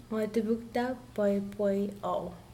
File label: I bought a hat, Mokilese